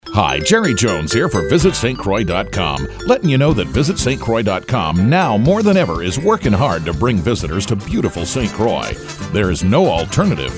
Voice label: Radio spot